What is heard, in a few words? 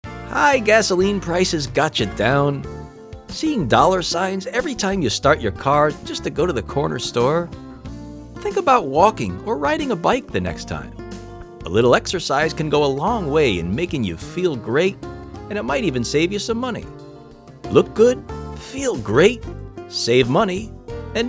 PSA